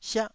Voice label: "siah4", taiwanese Syllables

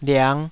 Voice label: "liang5", Taiwanese syllable

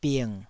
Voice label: "bing3", taiwanese syllable

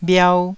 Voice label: "bhiau1", taiwanese

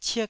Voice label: "cik1", Taiwanese

